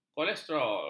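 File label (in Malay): "kolesterol", Malay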